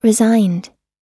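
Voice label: "resigned", US English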